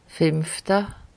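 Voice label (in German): "fìmfta" Alsatian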